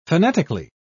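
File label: "fanatically", British English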